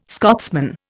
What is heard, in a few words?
"Scotsman", Indian